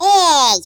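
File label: Watch it, Russian; hedgewars; Default ru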